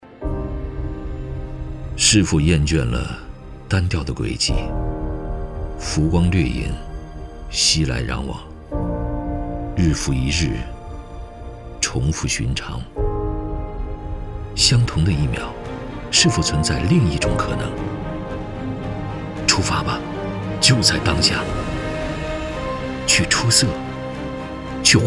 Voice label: 男国 其他 微电影; 出发

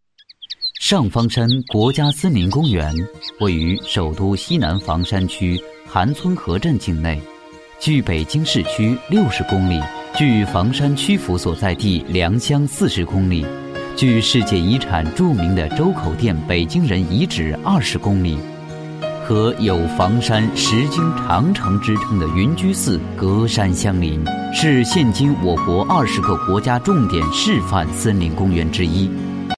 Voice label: 专题 政府 年轻; 上方山

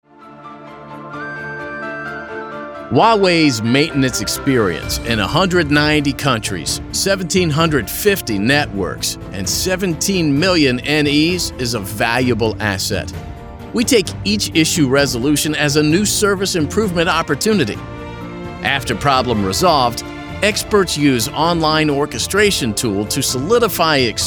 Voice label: 外籍男英 外语 美式英语 科技; 华为

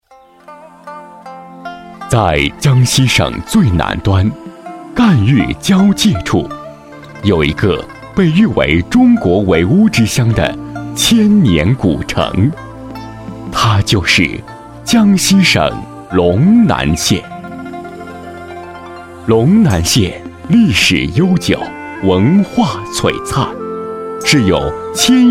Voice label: 宣传片 旅游 舒缓, 男国; 小武当山

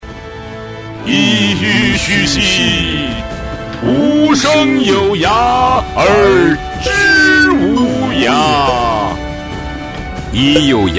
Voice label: 朗诵 大气朗诵, 女

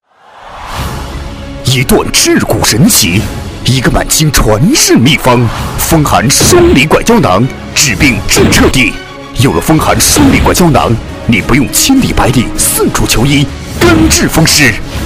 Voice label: 广告, 电购, 医药; 医药炒作风寒双离拐胶囊

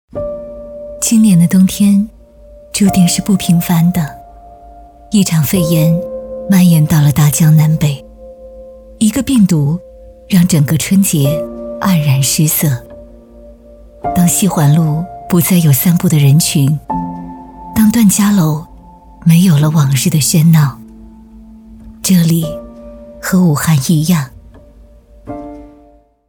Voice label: 旁白 疫情 独白, 女国

